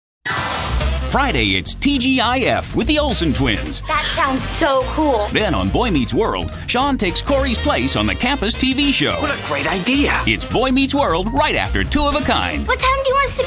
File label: Promo